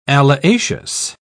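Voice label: "alliaceous", British English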